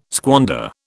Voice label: "squander", British

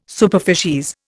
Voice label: "superficies", Indian

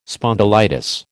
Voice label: "spondylitis", American

